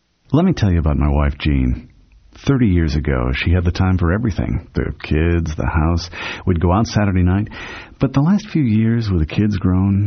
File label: man radio commercial